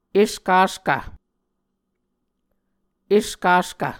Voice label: "eskaskv", Muscogee

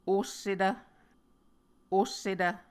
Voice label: "ossetv", Muscogee

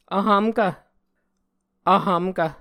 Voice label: "vhvmkv", Muscogee

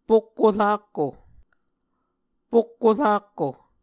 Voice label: "pokko rakko", Muscogee; Mvskoke Words with Repeated Consonants; Onvkv Ohhvyayices; A Dictionary of Creek/Muskogee; SIL International

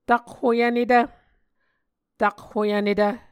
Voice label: "takhoyvnetv", Muscogee

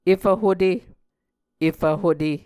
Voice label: "efv hute", Muscogee

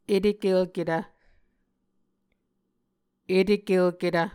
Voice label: "etekelketv", Muscogee; Mvskoke Words with Voicing Consonants; A Dictionary of Creek/Muskogee; SIL International

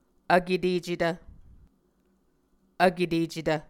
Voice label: "vketecetv", Muscogee

